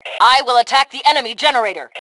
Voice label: Self Attack Generator, Female; T2 Female 02